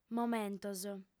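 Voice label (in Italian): "momentos", lingua sarda, fem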